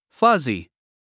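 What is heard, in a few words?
"fuzzy", English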